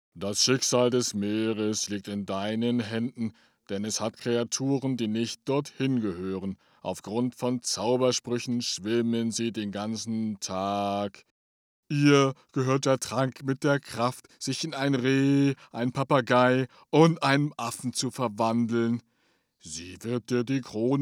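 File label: german male character; voice demos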